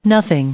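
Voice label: "nothing", English, female; pronoun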